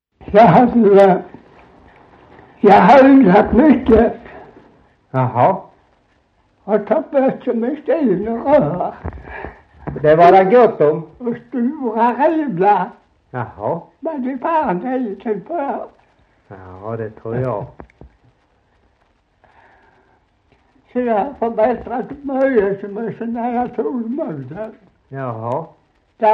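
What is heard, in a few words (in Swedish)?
Slättåkra Halland, dialekter; Folkminnen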